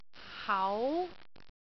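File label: "hau2", Cantonese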